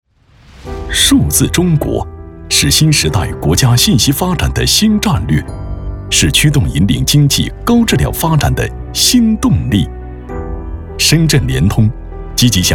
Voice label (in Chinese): 男 科技专题类 科技 大气 厚重; 数字中国·深圳联通